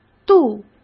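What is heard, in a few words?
"渡", Mandarin Chinese; chinese-flashcards; hsk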